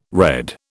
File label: "redd", British